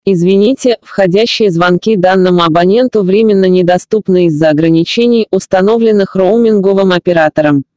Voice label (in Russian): уведомление РУС